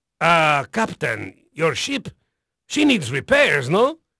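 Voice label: Spanish male, voice; Pirates Of The Caribbean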